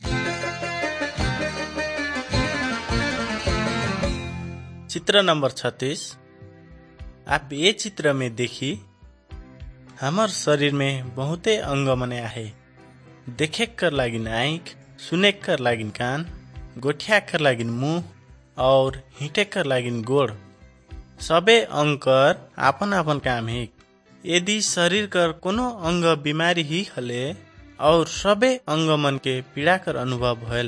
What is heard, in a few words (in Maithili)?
good news maithili, nt drama; wycliffe bible translators inc; kisan